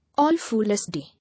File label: "All Fool’s Day", English